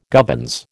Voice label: "gubbins", American

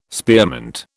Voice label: "spearmint", British

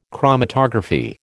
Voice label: "chromatography", American

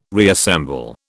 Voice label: "reassemble", British

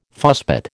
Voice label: "fusspot", American

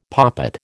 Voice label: "poppet", American

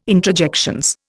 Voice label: "introjections", Indian

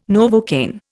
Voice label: "Novocaine", Indian

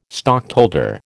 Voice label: "stockholder", American

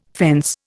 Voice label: "fence", Indian

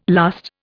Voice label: "lust", Indian

